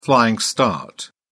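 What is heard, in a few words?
"flying start", British English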